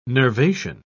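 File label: "nervation", British English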